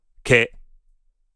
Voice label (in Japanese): "け", Japanese